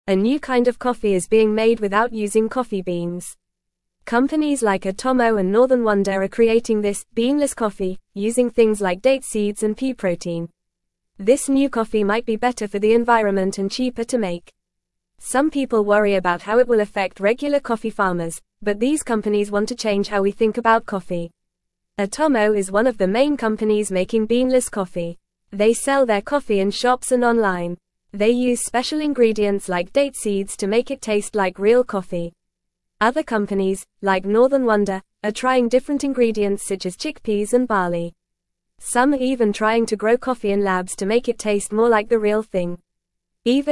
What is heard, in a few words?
English Newsroom Lower Intermediate FAST Reading; Beanless Coffee A New Kind of Earth Friendly Brew